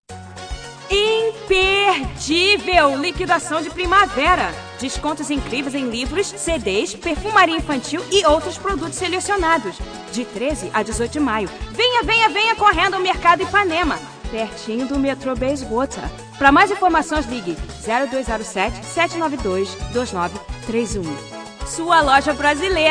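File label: Portuguese Brazil, General; GoLocalise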